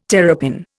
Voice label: "terrapin", Indian